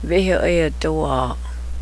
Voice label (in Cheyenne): cows, Cheyenne